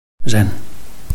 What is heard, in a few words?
"ren5", Chinese